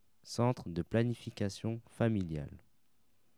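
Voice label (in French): "centre de planification familiale", French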